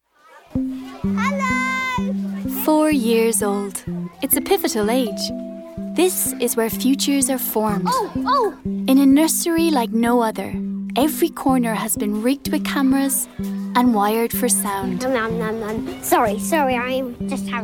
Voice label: Narration

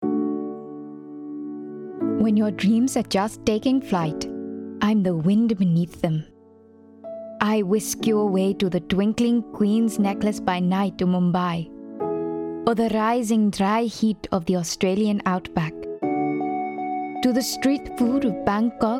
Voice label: Indian accent

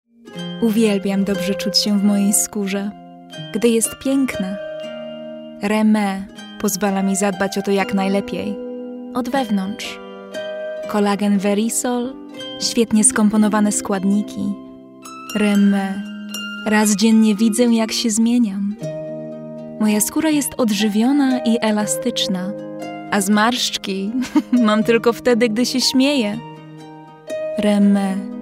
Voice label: Polish

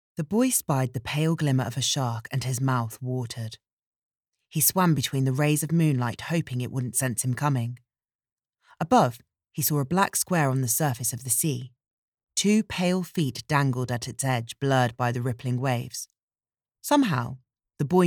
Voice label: Audiobook

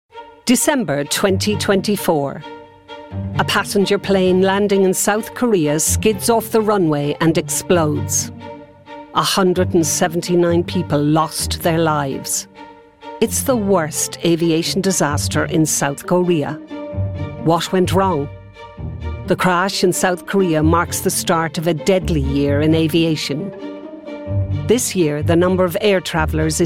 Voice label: Documentary; home studios

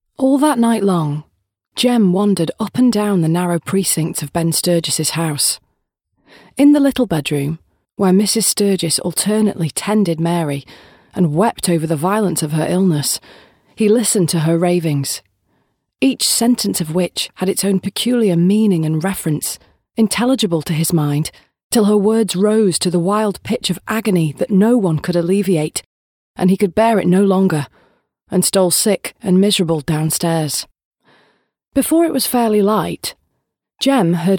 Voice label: Audiobook

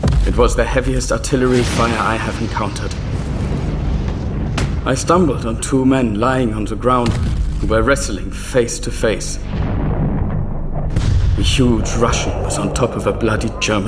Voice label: English

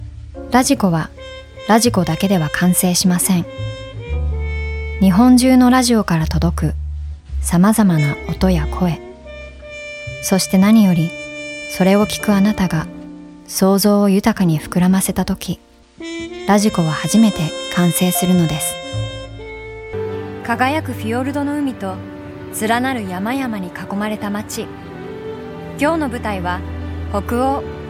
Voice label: Japanese

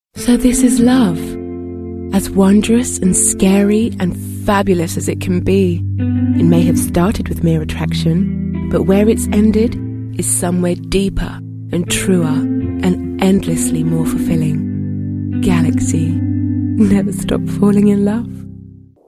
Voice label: Commercials

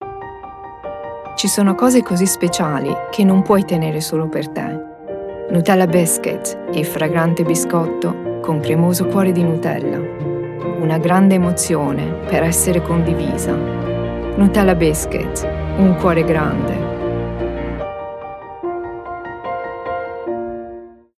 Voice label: Italian

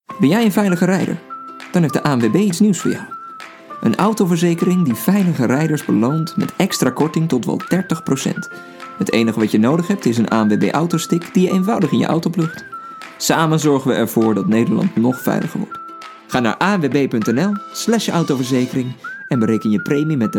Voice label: Dutch; European, Male, Home Studio, 20s-30s

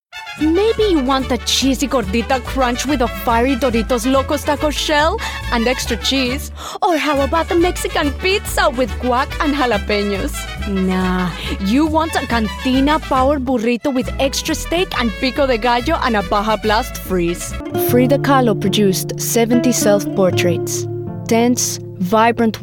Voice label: English

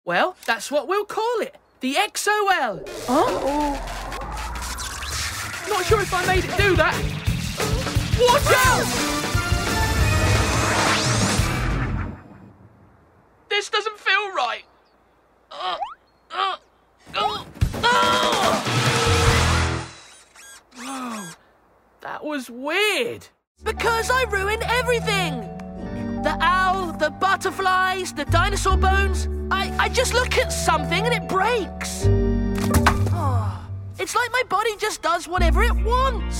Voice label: Animation; Male; 20s-30s

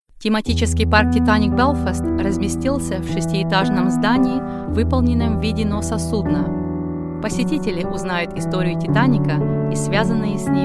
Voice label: Russian

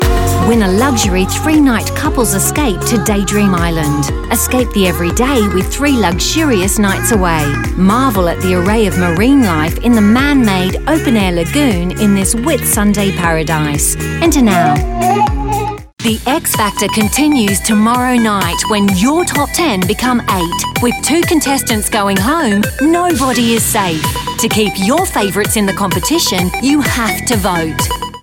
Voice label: Commercial

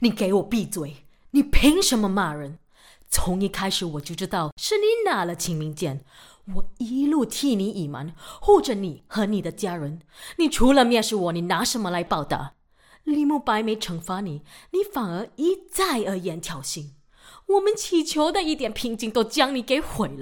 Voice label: Mandarin, Singaporean Mandarin, Female, 20s-30s

